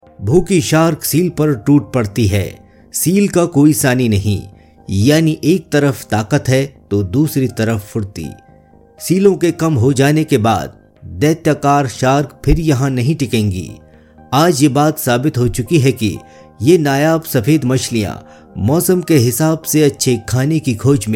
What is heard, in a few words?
Hindi male